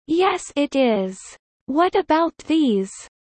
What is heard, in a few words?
low; studying abroad